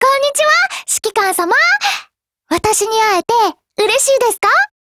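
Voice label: HELLO, Japanese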